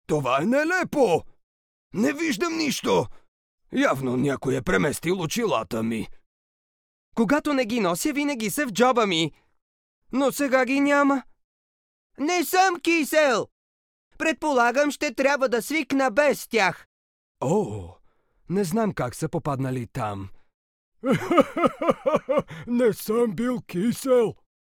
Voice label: bulgarian m character; voice demos